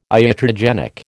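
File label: "iatrogenic", American English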